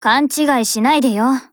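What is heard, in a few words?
DIALOGUE, Japanese; Grizzly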